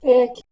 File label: "thek", Proto Germanic